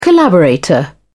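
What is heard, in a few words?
"collaborator", British English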